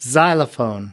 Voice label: "xylophone", us